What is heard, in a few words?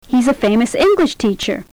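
He's a famous English teacher Compound, Stress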